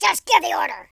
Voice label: "yes sir", War General; speech

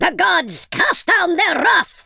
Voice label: incoming, speech, Viking

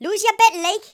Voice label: coward, Geordie; speech

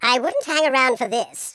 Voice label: runaway, speech Patronising

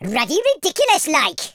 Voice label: "uh oh", Welsh; speech

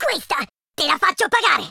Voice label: you'll regret that, speech Italian

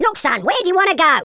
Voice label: "hurry", London Cabbie; speech

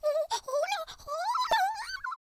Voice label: scared, Southern Belle; speech

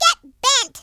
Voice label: "fireball", speech

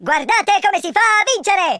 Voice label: perfect, speech Italian

